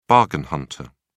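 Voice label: "bargain hunter", British English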